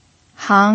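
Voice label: "hang" second tone, pinyin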